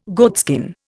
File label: "goatskin", Indian